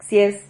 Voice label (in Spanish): "xies", Silabas